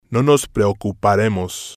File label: "no nos preocuparemos", spanish